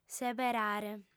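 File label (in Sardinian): "seberare", female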